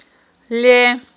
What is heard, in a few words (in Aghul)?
"ле", Aghul